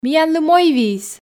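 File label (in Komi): миянлы мойвиис, Komi, female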